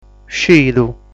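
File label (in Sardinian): "Scidu", Sardinian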